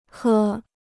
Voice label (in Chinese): "喝", Chinese